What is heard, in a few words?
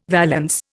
"valance", Indian